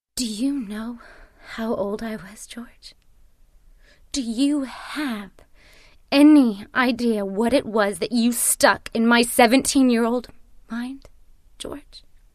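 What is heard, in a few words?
drama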